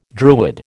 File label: "Druid", American